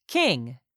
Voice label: "king", English; 3000 words